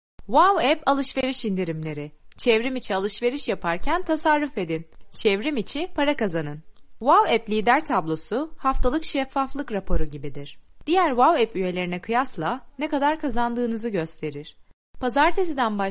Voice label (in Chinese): 土耳其语女 外语 小语种 样音 年轻